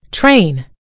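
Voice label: "train", British English; gcompris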